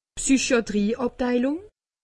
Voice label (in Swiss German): "psychiatrie", alsace; medecine